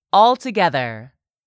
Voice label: "altogether", English; 3000 words